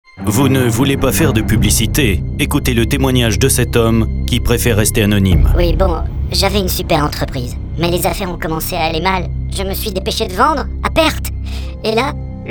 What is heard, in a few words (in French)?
radio pub spot